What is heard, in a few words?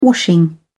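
"washing", British English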